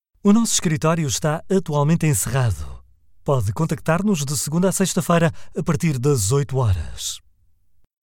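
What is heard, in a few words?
Portuguese, male, ivr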